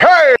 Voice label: "hey", voice